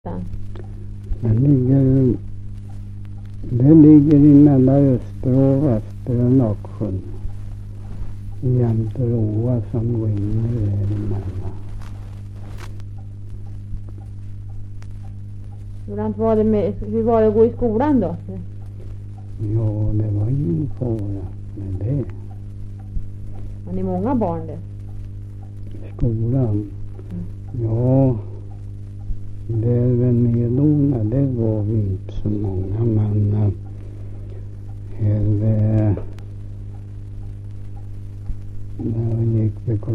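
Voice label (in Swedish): Ramundeboda Närke, dialekter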